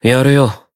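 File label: heal, Japanese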